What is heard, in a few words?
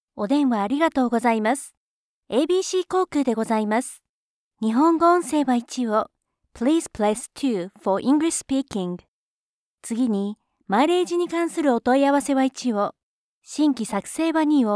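Japanese IVR; GoLocalise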